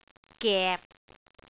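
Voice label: "gep6", Cantonese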